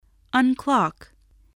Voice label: "unclock", spelling